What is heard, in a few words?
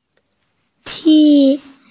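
"ti1", Mandarin Chinese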